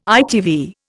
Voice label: "ITV", Indian

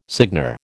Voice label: "seigneur", American

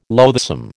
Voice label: "loathsome", American

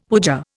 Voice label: "puja", Indian; Dictionary

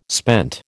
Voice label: "spent", American

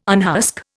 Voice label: "unhusk", Indian

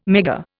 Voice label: "Megger", Indian

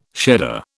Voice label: "shedder", British

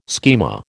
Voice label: "schema", American

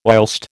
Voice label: "whilst", American

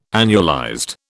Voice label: "annualized", British

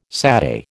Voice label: "satay", American

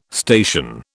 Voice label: "station", British

